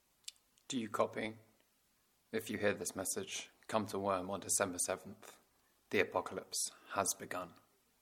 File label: Emergency message